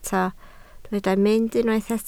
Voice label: "mynd", Welsh